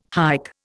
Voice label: "hike", Indian